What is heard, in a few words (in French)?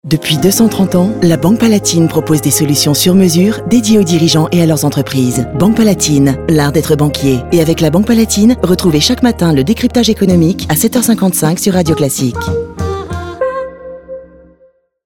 Billboard Radio Droit rassurant; BANQUE PALATINE 2015; Radio Classique